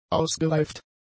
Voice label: "ausgereift", German; adjectives